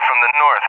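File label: "from the N", English, USA; DCS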